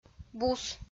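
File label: "бус", Komi-Zyrian, female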